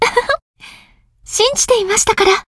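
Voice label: win, Japanese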